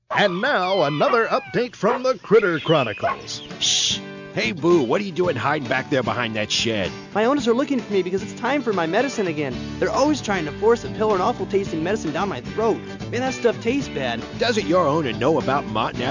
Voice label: radio; critter chronicle